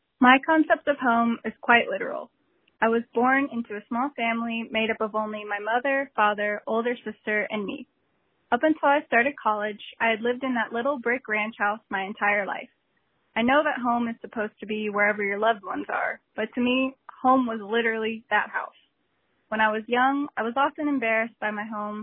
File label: Voicemail